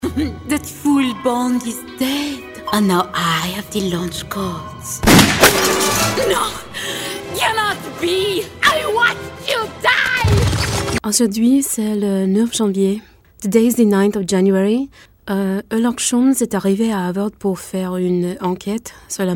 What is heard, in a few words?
FRENCH